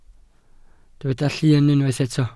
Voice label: "llyn", Welsh